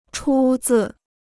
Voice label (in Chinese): "出自", Chinese